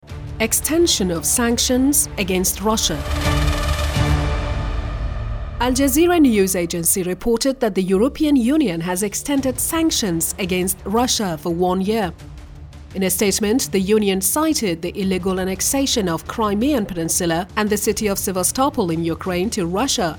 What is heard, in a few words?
narrator